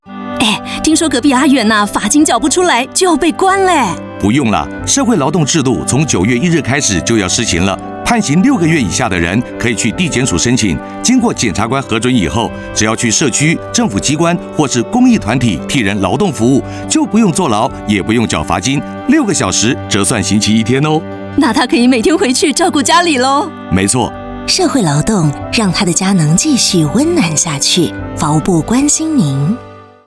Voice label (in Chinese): 易服社會勞動廣播廣告 國語